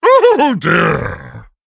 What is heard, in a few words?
Female Big Bad Wolf Attack; hearthstone; card sounds